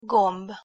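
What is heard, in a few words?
"gomb", Hungarian pronunciation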